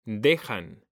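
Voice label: "DEJAN", Spanish; mekanta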